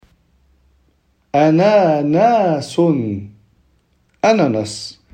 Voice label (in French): ananas en arabe